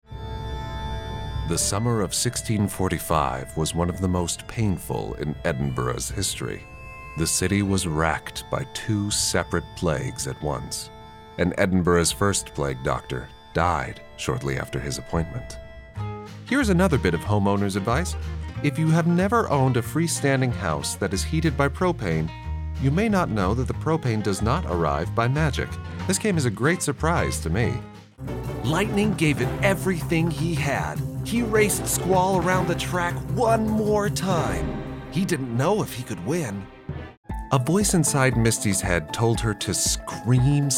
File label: narration